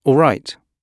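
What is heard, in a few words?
"alright", British English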